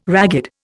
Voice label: "ragged", Indian

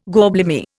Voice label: "gorblimey", Indian

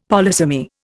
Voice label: "polysemy", Indian; Dictionary

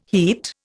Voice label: "heat", Indian

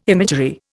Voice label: "imagery", Indian

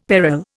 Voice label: "peril", Indian; Dictionary

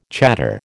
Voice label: "chador", American

